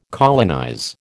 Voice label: "colonize", American